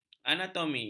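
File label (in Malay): "anatomi", Malay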